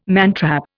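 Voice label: "mantrap", Indian English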